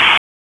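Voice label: Raleigh Police Northeast District Dispatch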